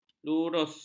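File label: "lurus", Malay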